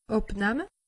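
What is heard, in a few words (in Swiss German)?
"abnamme", Alsatian; medecine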